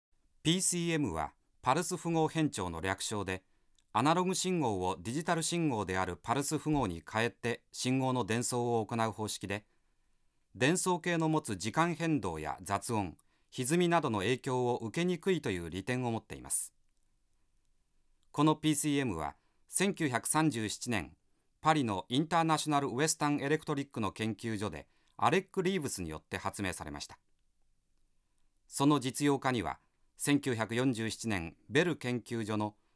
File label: Announcement Japanese (Stereo); Denon Audio Technical CD